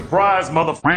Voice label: surprise